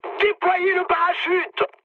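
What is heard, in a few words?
French bailout mood high; War Thunder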